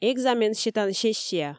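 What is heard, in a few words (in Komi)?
экзамен сетан сессия, Komi, female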